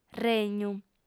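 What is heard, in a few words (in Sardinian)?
"regnu", female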